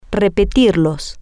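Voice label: female, spanish; repetirlas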